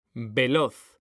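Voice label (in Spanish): "veloz", Spanish, male; mekanta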